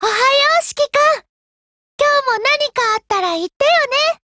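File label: UMP9 HELLO Japanese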